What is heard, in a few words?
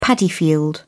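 "paddy field", British English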